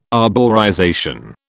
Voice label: "arborization", British